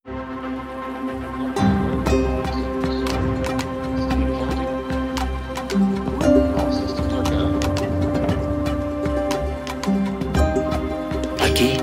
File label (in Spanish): Locutor; Destino Jujuy